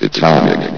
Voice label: "atomic", vox; Source Engine; bshift